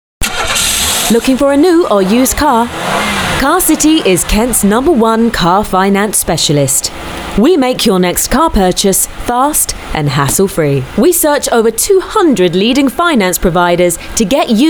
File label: commercial narrative voice